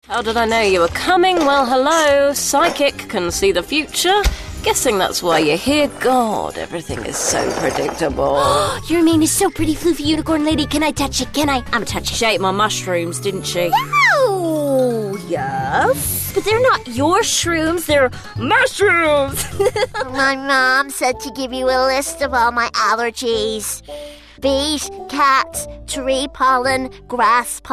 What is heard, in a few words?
Animation Character